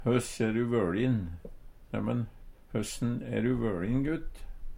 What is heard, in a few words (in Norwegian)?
hoss, Numedalsmål; Neimen, høssen e du vøLin, gut?